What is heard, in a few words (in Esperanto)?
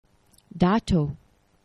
"dato", esperanto; geneva